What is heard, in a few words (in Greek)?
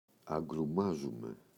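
"Αγκρουμάζουμαι", Greek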